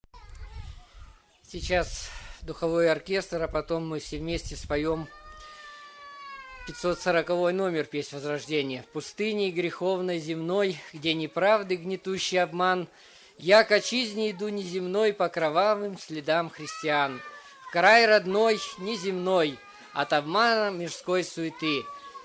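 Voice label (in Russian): Объявление; Похороны Я.Г. Скорнякова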